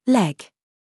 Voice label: DRESS TRAP, minimal pairs